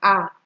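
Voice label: "her", Nigerian Pidgin, female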